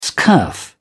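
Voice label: "scurf", English, British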